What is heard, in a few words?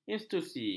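"institusi", Malay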